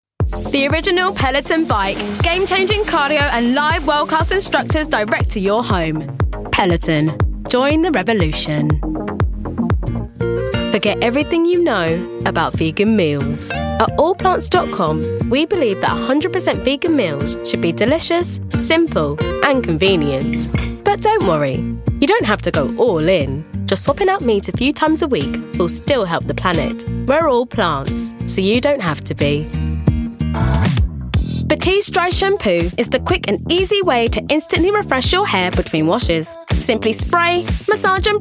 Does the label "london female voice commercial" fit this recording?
Yes